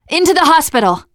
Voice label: world hospital, teengirl, voice; player; survivor